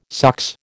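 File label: "sox", American English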